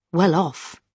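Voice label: "well off", British English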